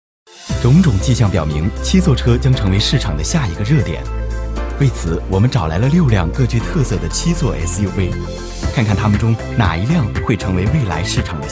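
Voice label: chinese male; lektoring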